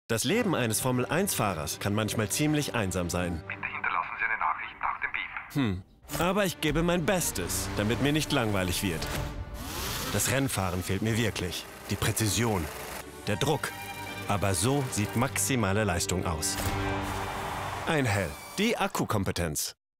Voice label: German Commercial; Einhell